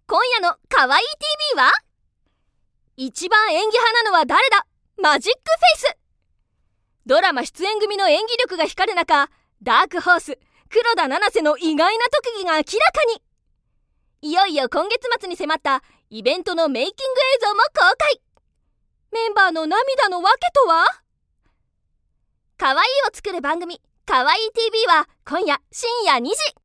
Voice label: テレビCM(女性 元気)

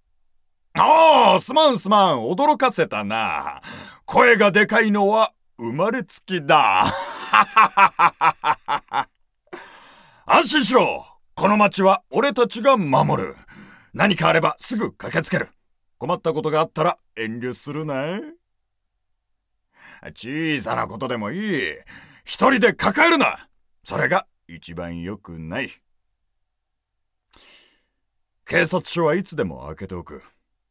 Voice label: ◆勢いのある警察官◆

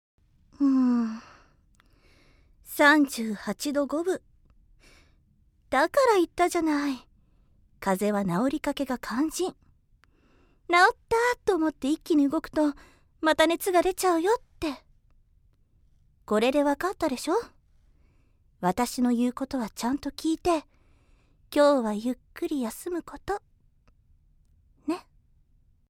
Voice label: 女性 台詞

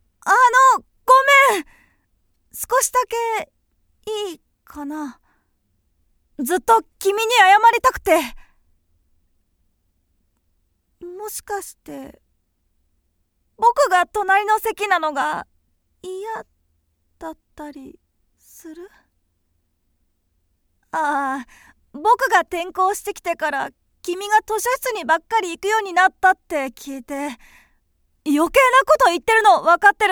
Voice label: 内気な少年

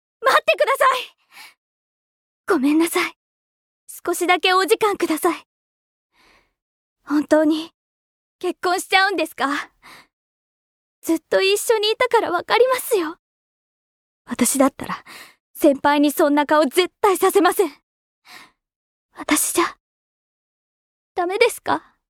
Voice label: 女性

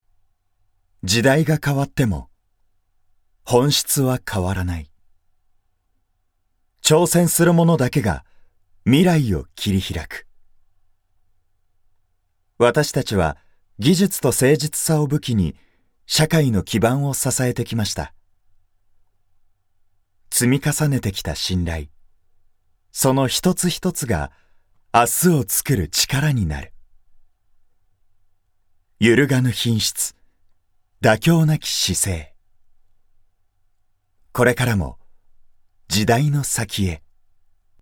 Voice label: ◆企業VP◆

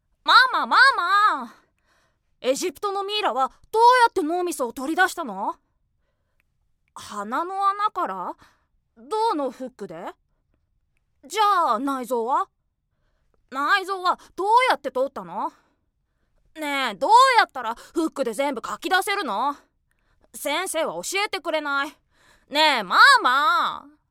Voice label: 知りたがりな男の子

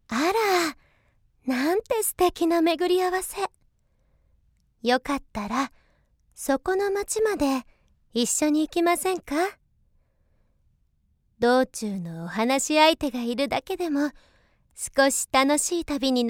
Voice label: 優しいお姉さん

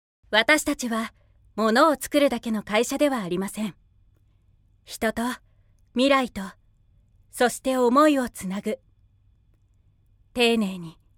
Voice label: アプリWEB CM

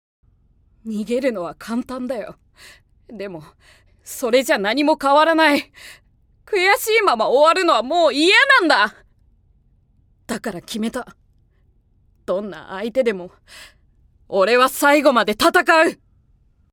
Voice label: 少年(シリアス)

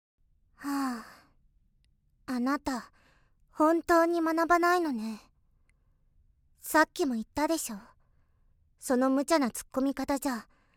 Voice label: 冷静な少女

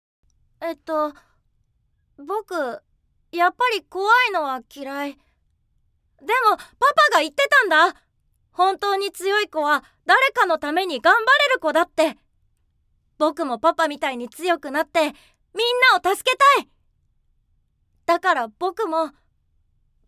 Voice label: 外画 決心する男の子

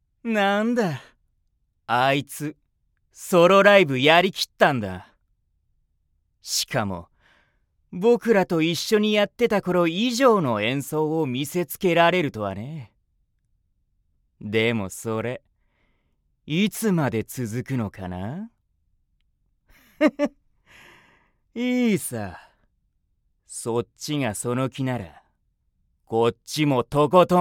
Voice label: おじいさん

